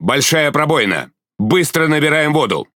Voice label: Speech Alarms Critical Flooding, RU; World Of Warships